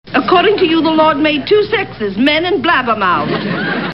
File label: blabber